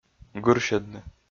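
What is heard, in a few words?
"гырсьӧдны", Komi, male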